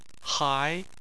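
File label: "hai", Chinese, second tone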